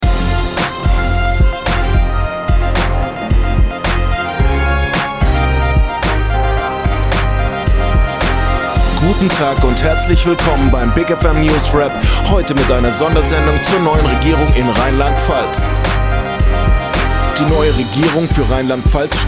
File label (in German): Newsrap; ig FM; RLP